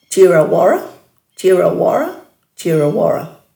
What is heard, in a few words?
"djirawara", Dhurga